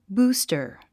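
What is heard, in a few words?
"booster", English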